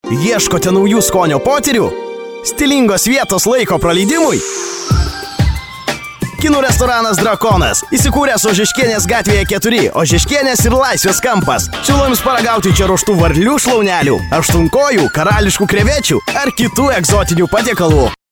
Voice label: lt commercial